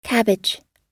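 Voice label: "cabbage", American English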